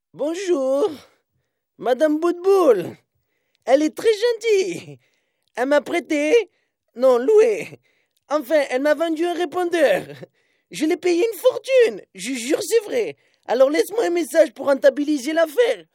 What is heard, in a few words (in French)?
Message Drole